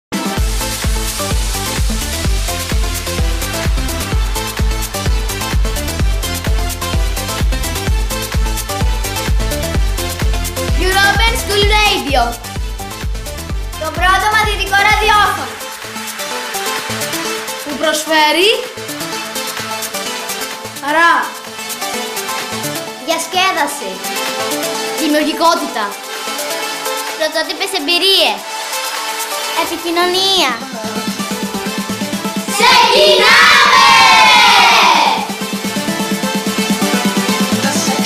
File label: Spot; 45o dim Patras